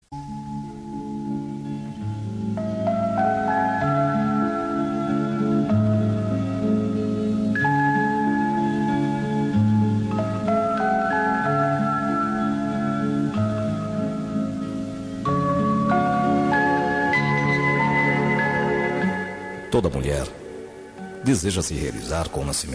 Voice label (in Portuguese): MATERNIDADE MASC